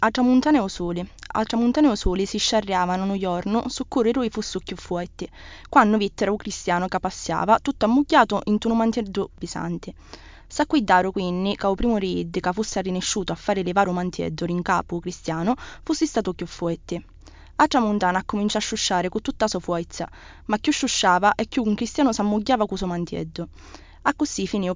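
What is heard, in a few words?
Italian dialect, female, Bagheria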